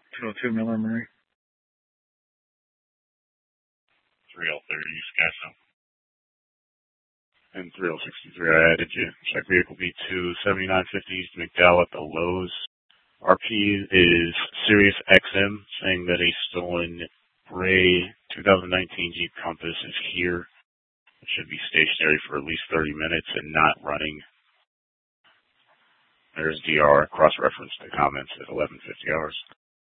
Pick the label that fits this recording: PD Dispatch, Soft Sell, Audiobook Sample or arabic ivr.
PD Dispatch